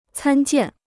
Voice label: "参见", Chinese

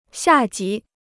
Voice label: "下级", Chinese

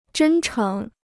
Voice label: "真诚", Chinese

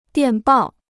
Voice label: "电报", Chinese

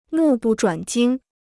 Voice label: "目不转睛", Chinese

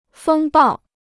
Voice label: "风暴", Chinese

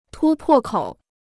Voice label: "突破口", Chinese

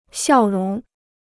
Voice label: "笑容", Chinese

